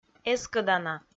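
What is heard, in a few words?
"эскӧдана", Komi, female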